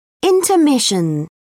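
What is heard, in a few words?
"intermission", British English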